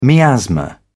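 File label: "miasma", British English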